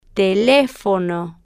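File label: "telefono" Spanish, female, slow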